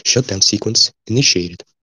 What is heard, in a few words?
shut down sequence, en-RU; jankboard